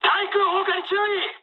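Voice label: damaged aa mood med, speech japanese; War Thunder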